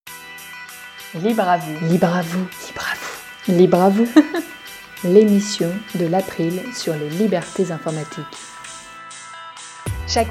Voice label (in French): avec musique